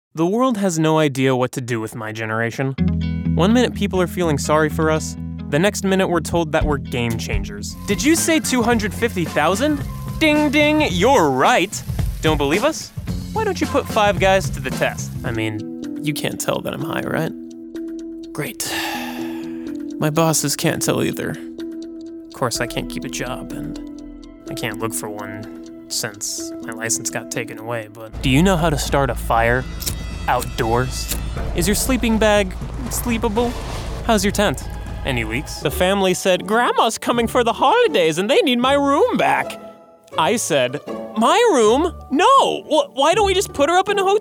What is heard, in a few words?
Commercial